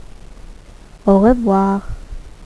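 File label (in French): "au revoir", French; HASTA LUEGO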